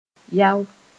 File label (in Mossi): "yao", moore